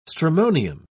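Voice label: "stramonium", British English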